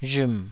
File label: "rhm3", taiwanese